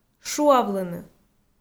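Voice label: "шуавлыны", Komi, female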